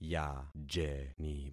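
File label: "yajeni", concatenated syllables; Affixing experiment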